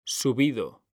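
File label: "subido", Spanish, male; mekanta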